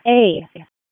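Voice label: Police Spec Ops Tac, radio; Raleigh; wake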